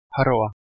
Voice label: "hearwa", Anglo Saxon

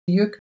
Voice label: "juk", Proto-Germanic

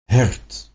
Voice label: "hert", Proto-Germanic

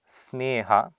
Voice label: "sneha", Sanskrit

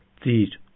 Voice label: "dzir", Armenian

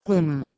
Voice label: "kweman", Proto-Germanic

